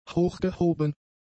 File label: "hochgehoben", German; adjectives